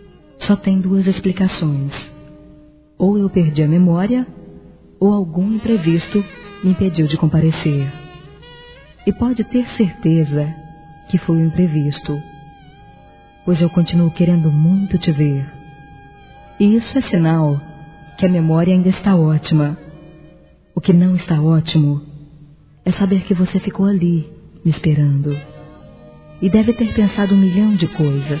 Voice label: desculpa por faltar ao encontro f, Telemensagens; Desculpas; fem; Telemensagens 2017

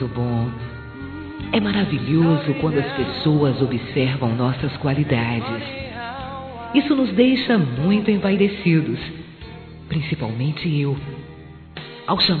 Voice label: Você Quer Me Conhecr Melhor, Sensuais fem; Telemensagens

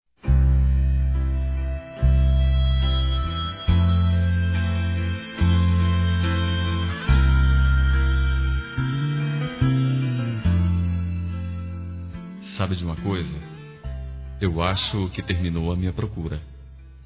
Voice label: Inicio de Namoro Masculino; Telemensagens; Telemensagens 2017

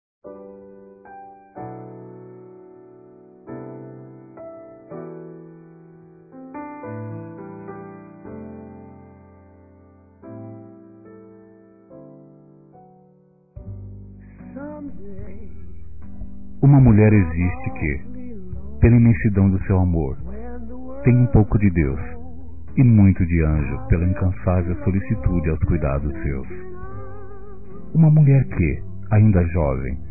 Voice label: Dia das Mães Retrato de Mães Masculino; Telemensagens 2017

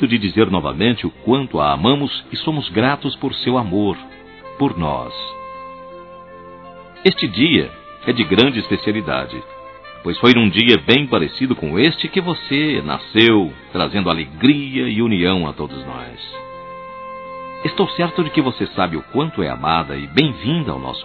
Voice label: Aniversário Neta Masculino

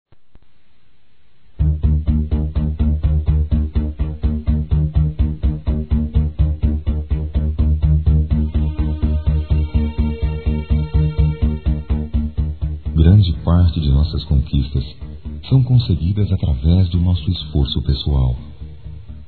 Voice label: Parabéns Pela Sua Vitório, masc; Telemensagens; Parabenização

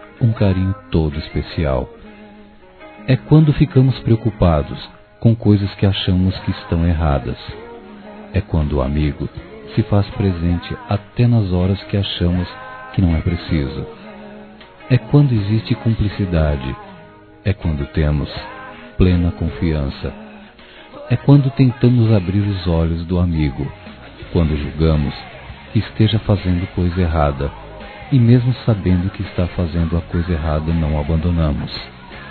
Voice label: Aniversário Amizade Masculino; Telemensagens 2017; Aniversários; Aniversário de Amigos

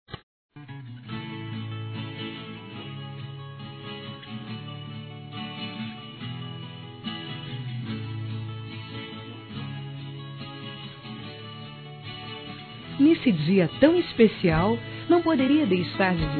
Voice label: ANIV. SIMPLES F; Aniversários; Aniversários diversos; fem

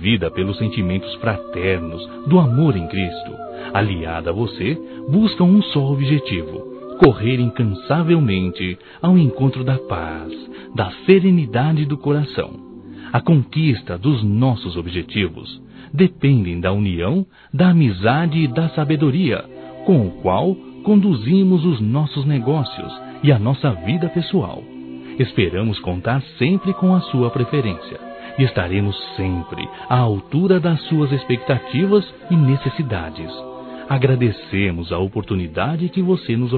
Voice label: Natal Novo Cliente Masculino; Telemensagens; Natal e Ano novo

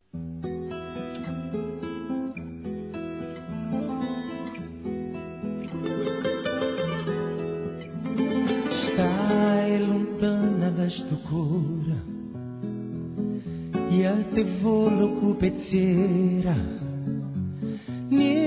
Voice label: DESCULPAS F, Telemensagens; fem; Telemensagens 2017

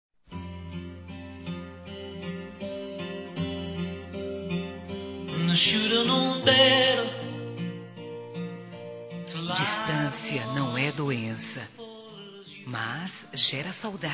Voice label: Saudades Romantico Feminino; Telemensagens

